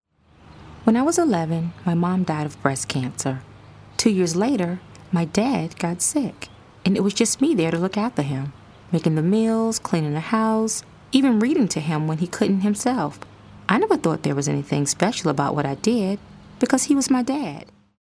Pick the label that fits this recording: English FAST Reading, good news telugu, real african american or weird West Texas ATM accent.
real african american